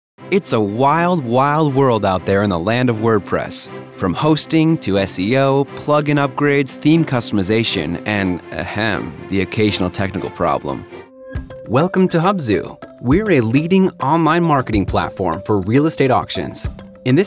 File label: Explainer